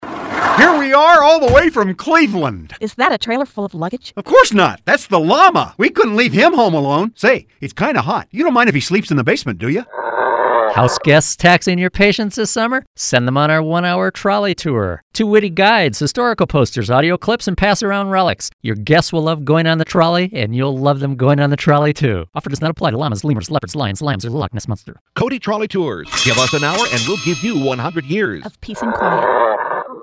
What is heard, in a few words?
Radio Ad; Llama